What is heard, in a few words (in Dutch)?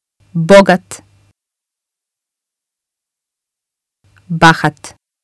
"bogat", bosnisch; uitspraak; rijk